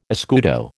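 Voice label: "escudo", American English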